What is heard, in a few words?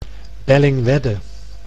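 "Bellingwedde", Dutch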